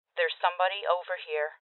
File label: Voices Enemy Spotted Female